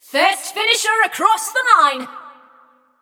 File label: Scrap Run Runner Reached End, Announcer; Flux